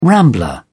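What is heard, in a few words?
"rambler", British English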